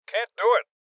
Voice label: Voices Refuse Male Old